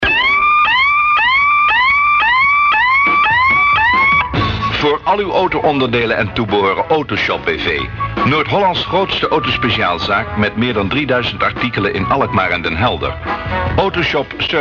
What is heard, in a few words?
commercial